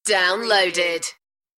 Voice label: UK female "Downloaded"; beanstalk audio

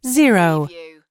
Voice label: US female phone prompt; lynne publishing

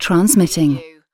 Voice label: "transmitting", UK female; lynne publishing

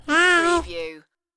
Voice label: Toddler Says Hi

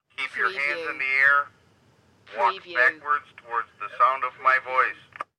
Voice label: Police Bull Horn Voice

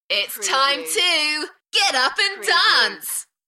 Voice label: UK female, Enthusiastic; Its time to get up and dance

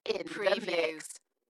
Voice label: UK female, Dalekness; In the mix; beanstalk audio

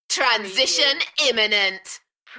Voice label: UK female, Transition imminent, Enthusiastic; beanstalk audio

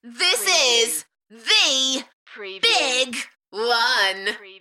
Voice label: UK female, Enthusiastic; beanstalk-audio

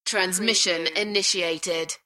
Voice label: UK female, Transmission initiated; beanstalk audio

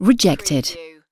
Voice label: "rejected", UK female; lynne publishing

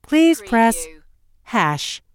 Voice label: US female phone prompt Please press hash; lynne publishing

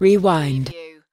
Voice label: US female "rewind"

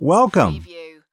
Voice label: "welcome", US male; lynne publishing

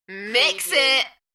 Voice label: UK female Mix it Enthusiastic; beanstalk audio

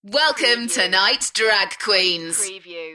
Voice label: UK female Welcome tonights drag queens; beanstalk audio

